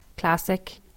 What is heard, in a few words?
"classic", english